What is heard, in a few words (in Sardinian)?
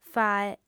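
"fae", female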